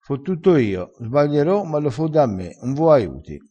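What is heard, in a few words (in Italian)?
"fo", vocabolario dialettale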